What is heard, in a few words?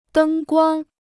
"灯光", Chinese